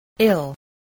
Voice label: "ill", voice spelling